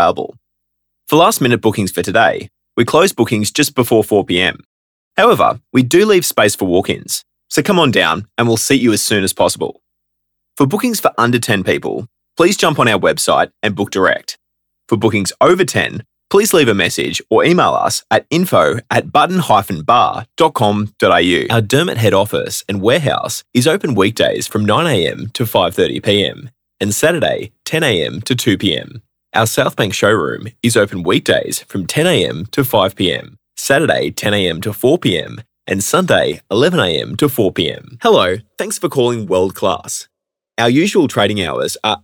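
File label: Australian PHONE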